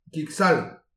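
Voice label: "kig sall", Breton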